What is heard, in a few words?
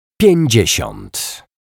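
"piecdziesiat", Polish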